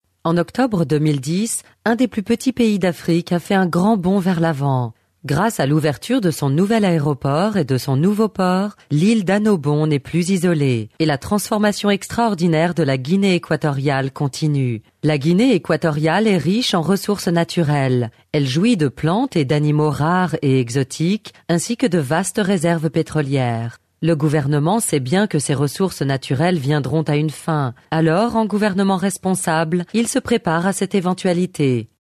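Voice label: French, female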